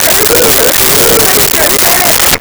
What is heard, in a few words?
Walkie Talkie Female Chatter; Communications